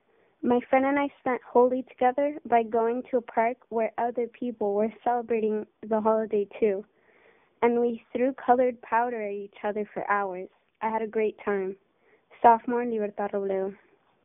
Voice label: Audio Message